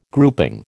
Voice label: "grouping", American